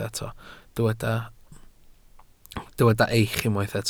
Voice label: "eich", Welsh